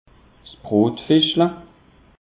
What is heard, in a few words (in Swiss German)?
"brotfischel", alsace; peche